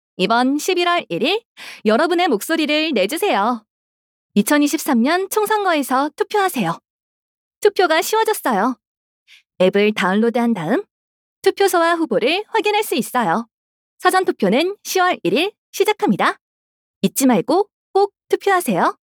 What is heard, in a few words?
Korean, female